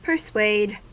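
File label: "persuade", American English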